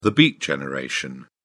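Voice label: "beat generation", British English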